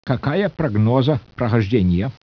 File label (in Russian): SPEECH, RUSSIAN